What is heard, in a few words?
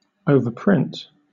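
"overprint", British English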